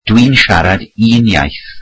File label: welsh I speak one language